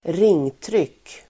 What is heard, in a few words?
"ringtryck", Swedish